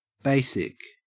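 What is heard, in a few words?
"basic", Australian English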